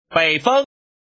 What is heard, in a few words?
man